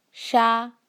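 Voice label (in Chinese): "sha", Mandarin Chinese; 沙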